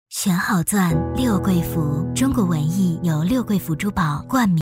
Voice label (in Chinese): 女-广告-温柔优雅; 珠宝; 六桂福